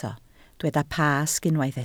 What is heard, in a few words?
"Pasg", Welsh